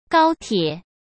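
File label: "高铁", Mandarin Chinese; chinese-flashcards; hsk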